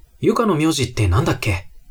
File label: man